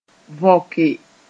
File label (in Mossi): "võke", moore